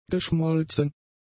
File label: "geschmolzen", German; adjectives